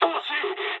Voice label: bomb failure mood high, speech jp; War Thunder